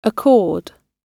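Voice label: "accord", en-am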